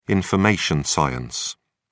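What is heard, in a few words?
"information science", British English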